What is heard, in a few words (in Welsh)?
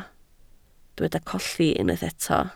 "colli", Welsh